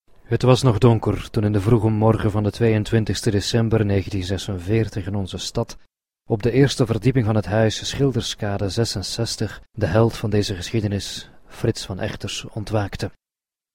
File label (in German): Belgien M